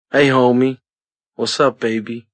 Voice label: Hey Homie Wassup, Male